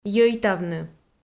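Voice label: "йӧйтавны", Komi, female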